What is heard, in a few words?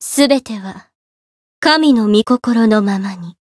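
Voice win Japanese; rosetta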